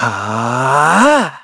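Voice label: Vox Casting, korean; Evan; kingsraid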